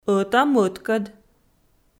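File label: "ӧта-мӧдкӧд" Komi, female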